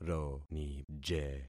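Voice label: "ronije", concatenated syllables; Affixing experiment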